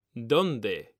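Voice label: "DONDE", Spanish; mekanta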